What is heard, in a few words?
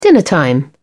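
"dinner time", British English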